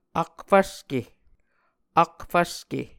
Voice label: "akfvske", Muscogee